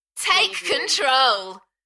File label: UK female, Take control, Enthusiastic; beanstalk audio